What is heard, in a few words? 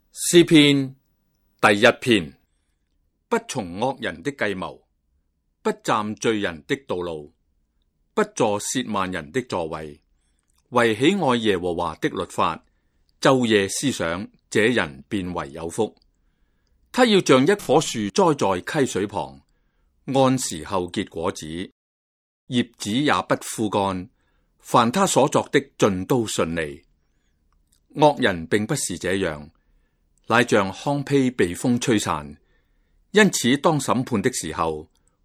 cantonese Psalm